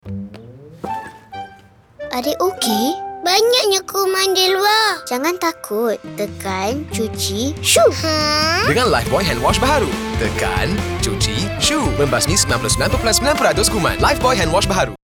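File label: Bahasa Malaysia; Handwash; 15sec; Lifebuoy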